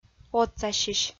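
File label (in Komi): "отсасьысь", Komi, female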